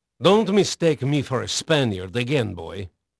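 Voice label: Portuguese male, voice; Pirates Of The Caribbean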